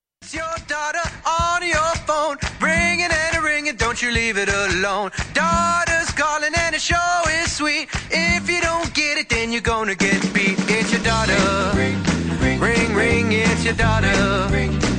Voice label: your daughter is calling mobile tone ringtone